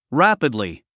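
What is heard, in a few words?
"rapidly", English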